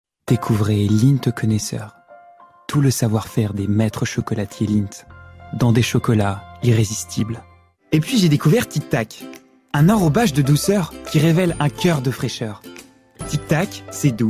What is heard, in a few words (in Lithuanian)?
VYR Prancuzu balsas, vyras